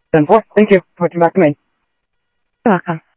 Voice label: scanner audio trunk